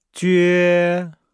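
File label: "jue", Chinese; Nihao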